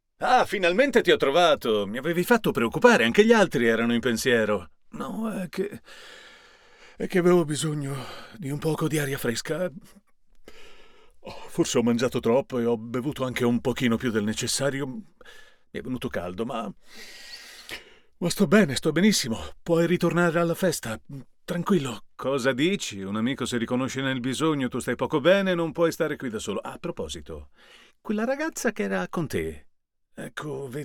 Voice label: 35 50 Male two friends; demo